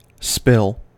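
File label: "spill", American English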